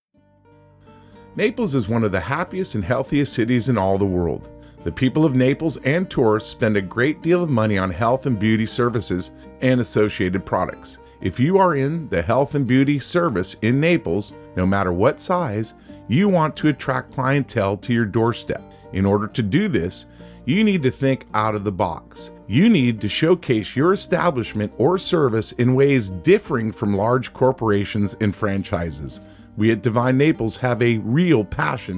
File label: voice with music; health and beauty